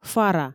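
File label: "фара" Komi, female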